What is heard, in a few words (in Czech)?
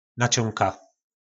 "Načónka", nářečí Po našimu; Nať